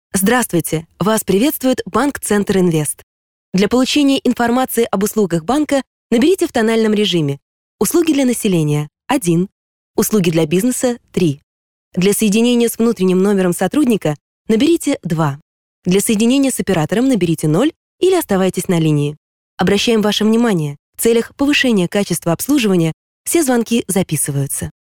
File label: locutora rusa russian female voice